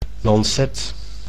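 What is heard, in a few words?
"lancet", Dutch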